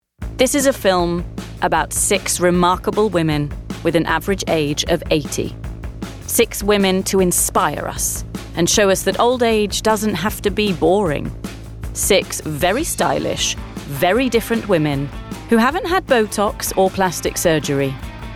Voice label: Documentary, Reassuring, engaging, bright and sophisticated